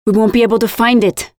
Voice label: Lose match, Voiceovers; Project Argo

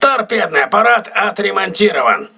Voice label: voice message tt repaired, russian

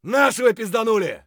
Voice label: friendly down, voice; Escape from Tarkov

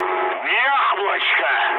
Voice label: bomb success, mood high, speech ru; War Thunder

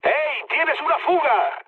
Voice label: tank damaged, mood med, speech; War Thunder

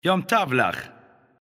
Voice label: Neutral Hello; Civ5; Darius

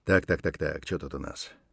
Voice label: mutter, voice; Escape from Tarkov

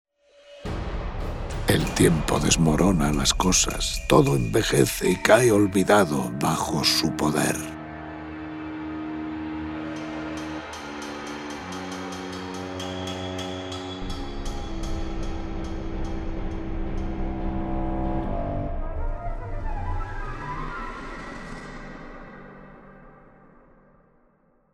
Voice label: Spanish, Speech, Wonder; Civ5; Stonehenge

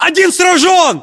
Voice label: inform killfirm infantry, battlechatter ru

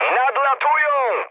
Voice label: enemy detected mood high, speech pol; War Thunder

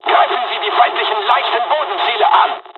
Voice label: ground attack, dialogs german; midway jpn; War Thunder

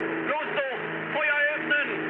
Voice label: attack position, mood high, speech ge; War Thunder

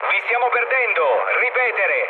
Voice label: chat, speech it; War Thunder

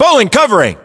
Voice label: US response covering, battlechatter, english; localized english

